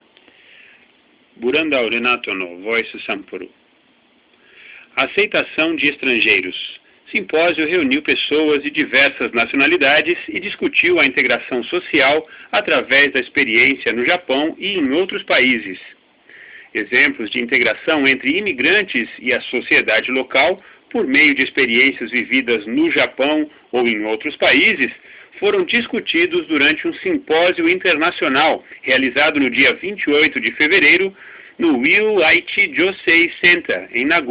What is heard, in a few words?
por; MediaVoix; vice sample